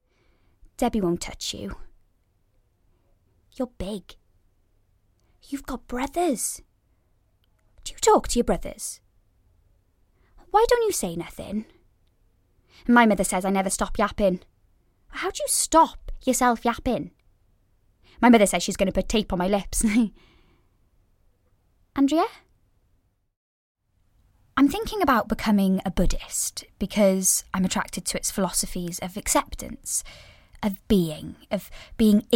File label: Character